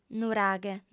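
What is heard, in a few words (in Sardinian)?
"nuraghe", female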